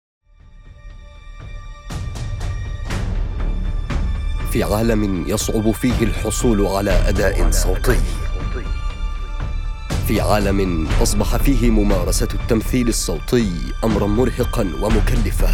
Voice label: Arabic Fusha

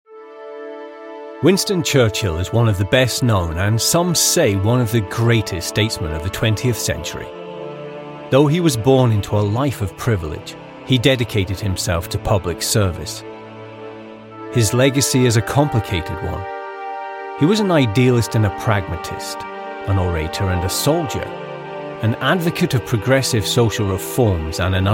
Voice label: Documentary

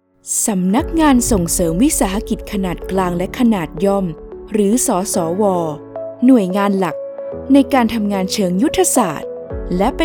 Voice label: Corporate Formal

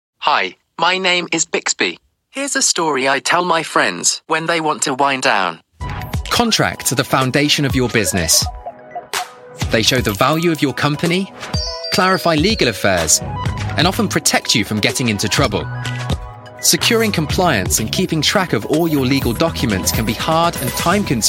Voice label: Corporate UK; Bixby

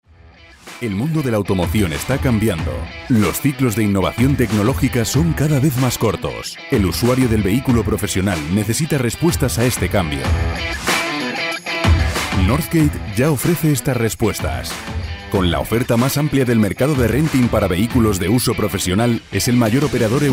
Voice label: CORPORATES

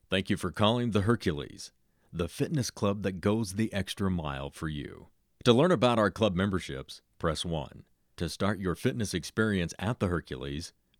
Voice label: Generic On Hold IVR

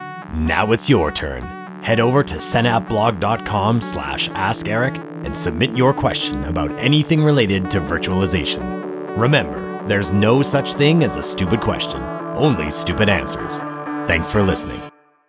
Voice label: Podcast Closing; Ask Eric

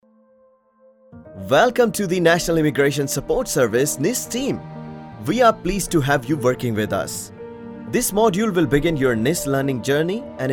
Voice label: English indian and Urdu

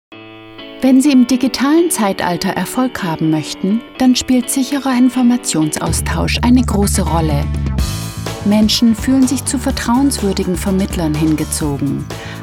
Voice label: GERMAN Commercial

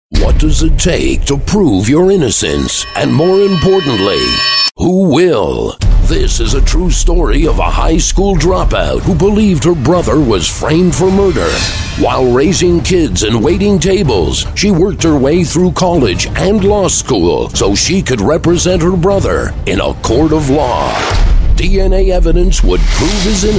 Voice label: MOVIE TRAILER VOICE

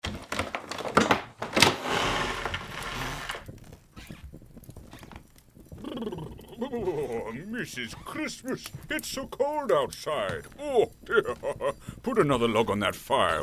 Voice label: santa claus voiceover

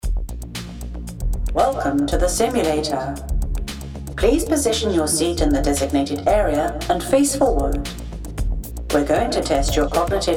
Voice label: Lovely Voice Game Narration

